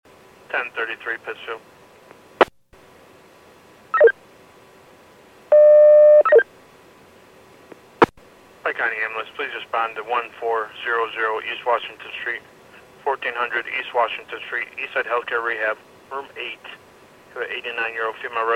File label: PAGING